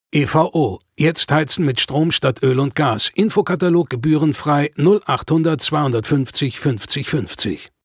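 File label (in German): Werbung Neutral; Fremdtake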